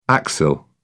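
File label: "axil", British English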